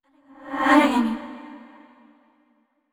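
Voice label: neutral, voice; yamiko aragami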